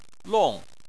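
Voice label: "long" fourth tone, Chinese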